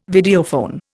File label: "Videophone", Indian